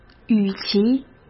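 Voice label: "与其", Mandarin Chinese; hsk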